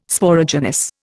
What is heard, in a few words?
"Sporogenous", Indian